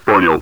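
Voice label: "yes", English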